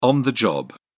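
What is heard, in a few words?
"on the job", British English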